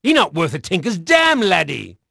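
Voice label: English male; Pirates Of The Caribbean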